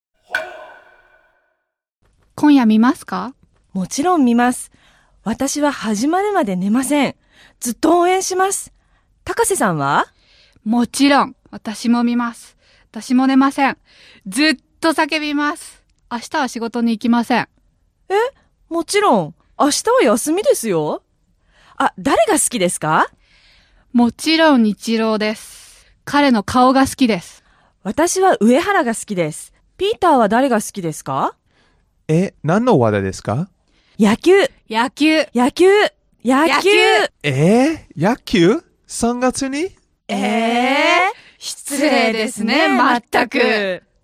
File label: dialog; JapanesePod101; Beginner Season 1